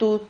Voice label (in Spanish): "tud", Silabas